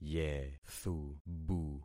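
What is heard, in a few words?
"yethubu", concatenated syllables; Affixing experiment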